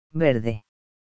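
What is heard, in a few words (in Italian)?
"verde", italiano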